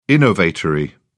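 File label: "innovatory", British English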